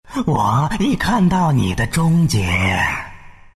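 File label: attack, Chinese; Dota 2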